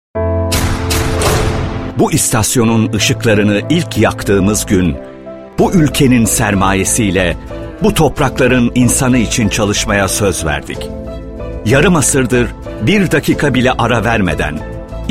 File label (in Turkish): reklam drama; aytemiz